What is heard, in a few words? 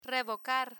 "revocar", Spanish; verbs ar